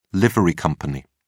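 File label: "livery company", British English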